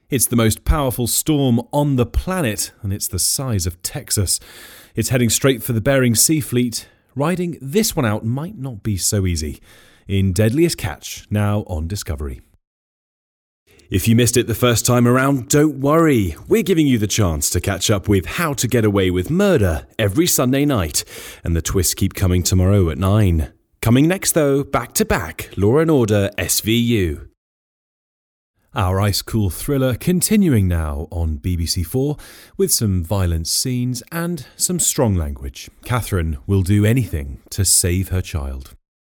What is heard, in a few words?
continuity; reels